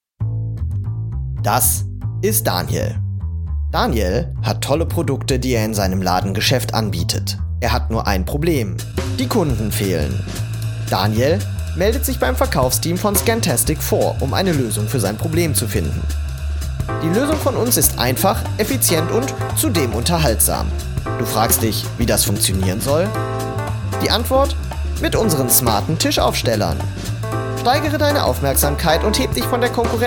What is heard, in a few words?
GERMAN